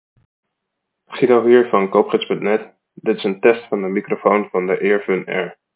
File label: earfun air microfoon test